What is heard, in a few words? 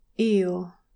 "eal", english pronunciation